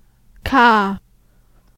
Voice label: "car", en GB; tdeedu; klettres